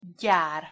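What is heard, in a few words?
"gyar", Hungarian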